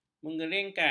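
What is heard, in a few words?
"mengeringkan", Malay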